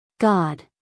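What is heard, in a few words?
"god", American English female; everyone-can-use-english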